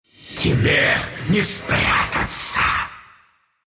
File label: attack, ru